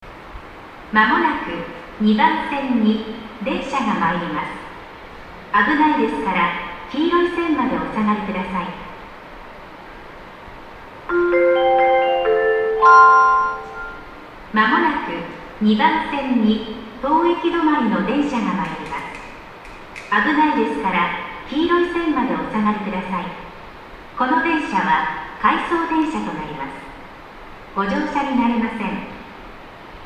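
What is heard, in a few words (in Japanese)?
八千代緑が丘駅 駅放送; 2番線; 接近; yachiyo-midorigaoka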